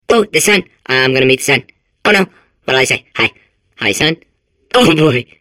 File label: babble; portal2